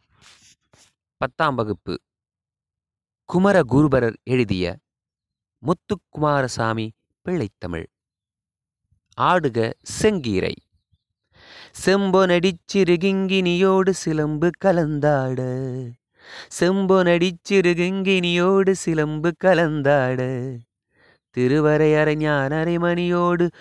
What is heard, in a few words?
tamil memory poem; namma kalvi